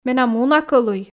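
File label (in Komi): менам уна кӧлуй, Komi, female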